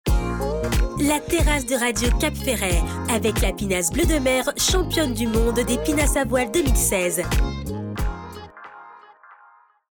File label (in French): souriant sympathique dynamique; BILLBOARD PINASSE BLEU DE MER diffusion par RADIO CAPFERRET